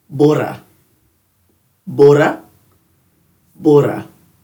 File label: "burra", Dhurga